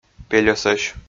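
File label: "пельӧсӧсь", Komi, male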